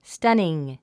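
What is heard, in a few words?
"stunning", English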